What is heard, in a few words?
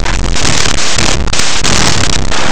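"concepcion", Spanish; laboratori fonetica; oclusives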